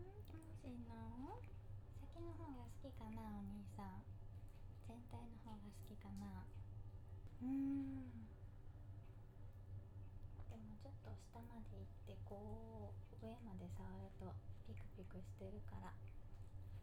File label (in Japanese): kansai ben